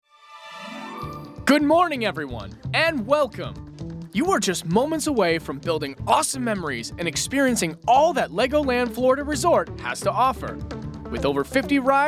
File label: Opening Moment Read